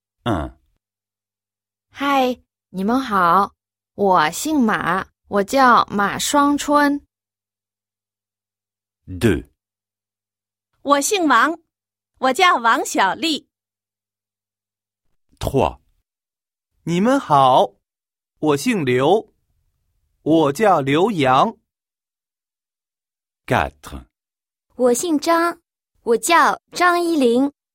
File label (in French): chinois woxingma